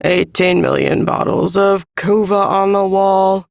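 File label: Ambient Female Sedentary, Dialog; Duviri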